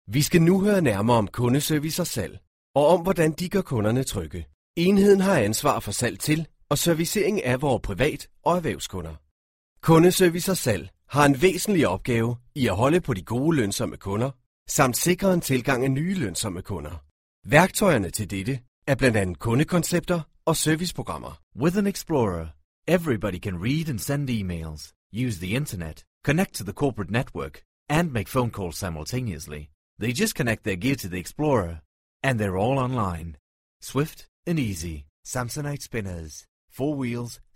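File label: Danish; GoLocalise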